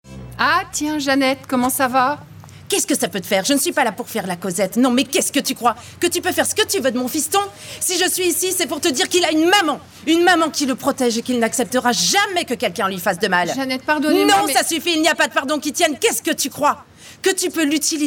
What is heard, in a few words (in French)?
Doublage; la Belle Cecci